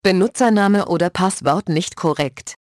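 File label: Username Or Password Incorrect, German; OpenCore; AXEFIAudio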